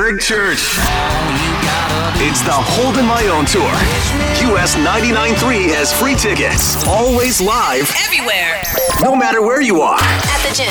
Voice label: radio men country; radio imaging